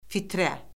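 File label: "fitrə", Azerbaijani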